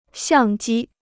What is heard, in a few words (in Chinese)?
"相机", Chinese